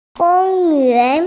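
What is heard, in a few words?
"gong yuan" Chinese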